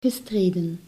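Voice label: "gestreden", Dutch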